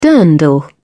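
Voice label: "dirndl", British English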